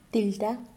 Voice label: "enter", Mokilese